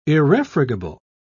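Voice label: "irrefragable", British English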